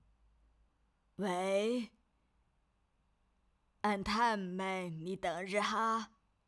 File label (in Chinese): 客家话奶奶